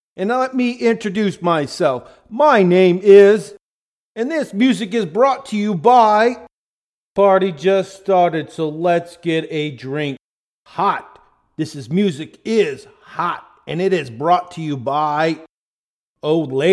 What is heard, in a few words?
spoken word 120 Gm; acapella